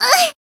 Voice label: Voice damaged, jp